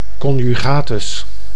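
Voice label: "conjugatus", Dutch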